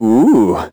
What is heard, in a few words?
vo confused; Crypt of the NecroDancer